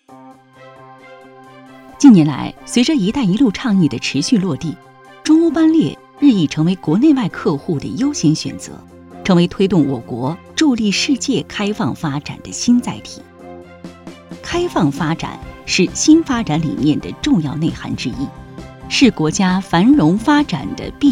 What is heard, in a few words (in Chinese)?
央视女中欧班列新闻感